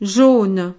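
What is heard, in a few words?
"jaune", French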